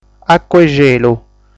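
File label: "Àcua De Celu", Sardinian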